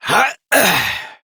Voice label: Horse Mount Adult; Duviri

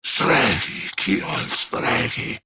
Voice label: Idle Grineer Ghoul Sarge, en; Grineer Ghoul Drill Sargeant; Battle Barks

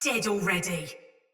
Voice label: Attack Takeover Soldier Mace Agent Female; Battle; Sinecure Melee

